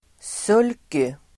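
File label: "sulky", Swedish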